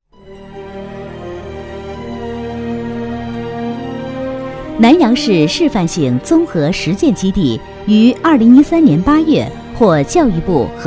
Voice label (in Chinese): 女 专题 实践基地; 南阳市